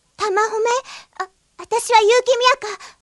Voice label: japanese; fushigiyuugi; miaka